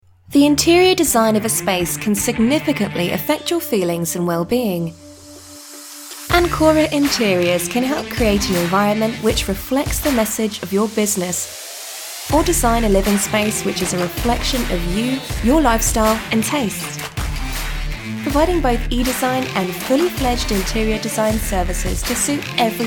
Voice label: Podcast Advert